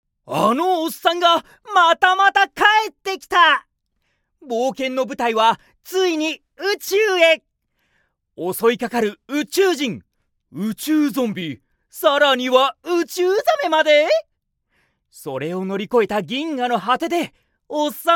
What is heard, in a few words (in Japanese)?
さわやかなCM